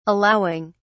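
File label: "allowing", British English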